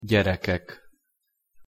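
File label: "gyerekek", Hungarian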